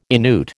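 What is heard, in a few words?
"Inuit", American English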